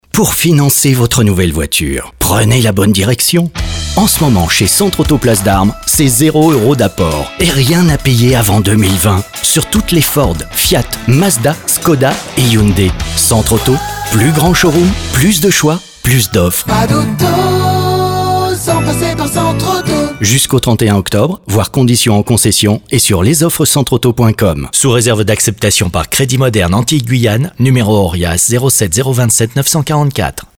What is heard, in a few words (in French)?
spot; CENTRE AUTO